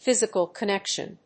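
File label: "physical connection", English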